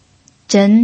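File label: "zhen" Mandarin, second tone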